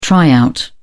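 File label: "try out", British English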